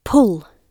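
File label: "pull", British English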